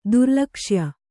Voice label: "ದುರ್ಲಕ್ಷ್ಯ", kannada

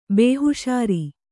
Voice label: "ಬೇಹುಷಾರಿ", kannada

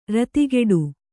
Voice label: "ರತಿಗೆಡು", kannada

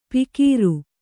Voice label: "ಪಿಕೀರು", Kannada

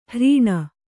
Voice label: "ಹರಿಣ", Kannada

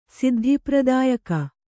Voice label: "ಸಿದ್ಧಿಪ್ರದಾಯಕಿ", Kannada

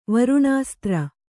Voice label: "ವರುಣಾಸ್ತ್ರ", Kannada

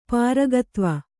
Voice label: "ಪಾರಗತ್ವ", Kannada

